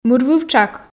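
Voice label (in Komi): "мырвывтшак", Komi, female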